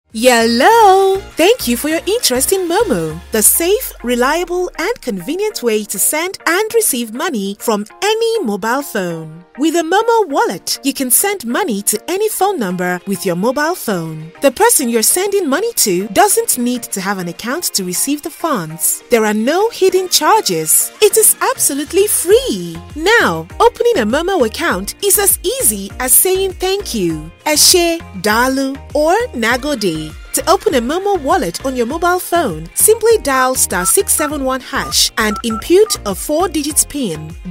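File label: African English Commercial; GoLocalise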